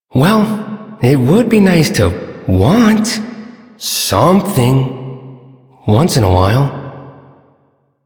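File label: Male Sedentary; Warframe; Duviri; Duviri Ambient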